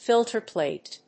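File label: "FILTER PLATE", English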